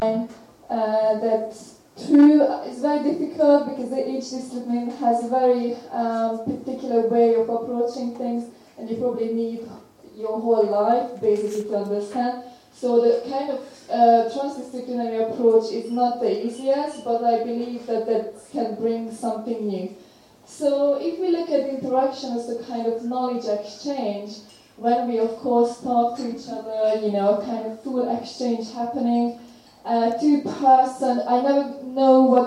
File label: a minute of academic jibberish ambience